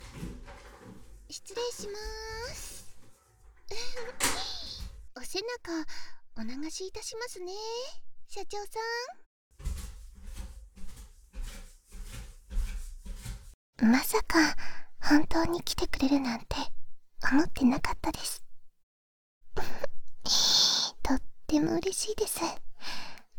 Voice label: お風呂であわあわ, 反響無し バイノーラルマイク 効果音あり大きめ; 秘密の枕営業…お風呂であわあわプレイ

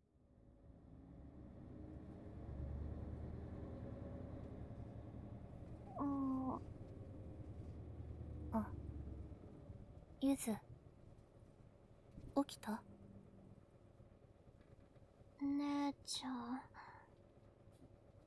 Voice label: 添い寝 BGM なし,ASMR; 百合でエッチな耳かきボイスβ; バスの添い寝

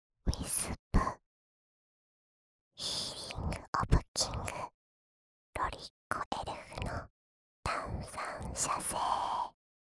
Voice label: タイトルコール, ASMR

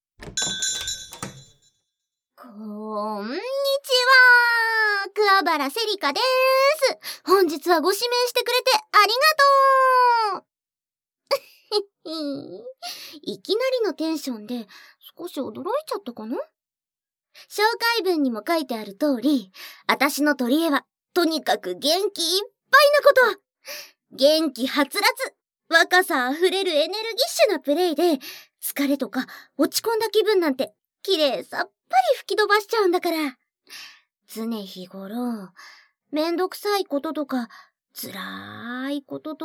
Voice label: 挨拶, ASMR

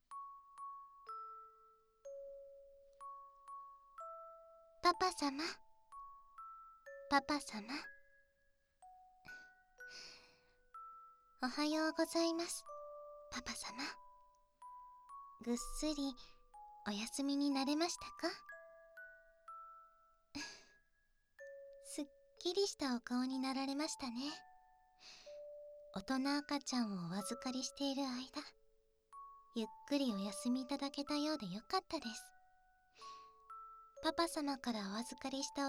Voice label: ご挨拶, ASMR

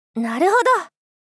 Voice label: なるほどっ 少女×後輩×中音域

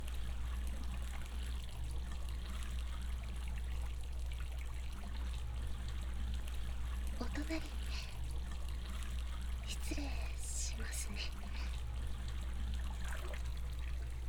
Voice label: 催眠; 桃源譚~温泉~; 綾乃の催眠

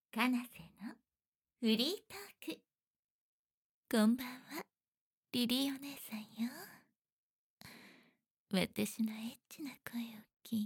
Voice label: フリートーク, ASMR

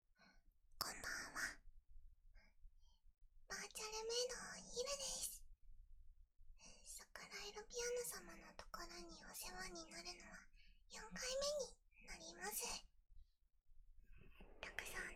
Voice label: フリートーク, ASMR

